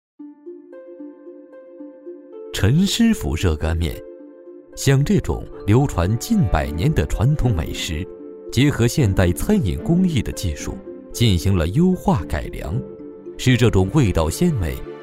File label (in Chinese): 男97-模仿; 舌尖感觉